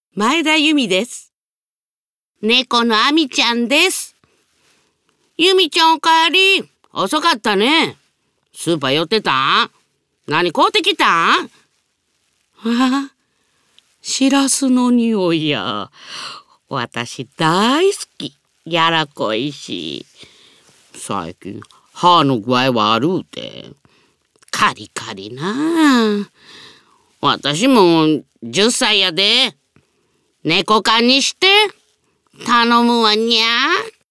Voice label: drama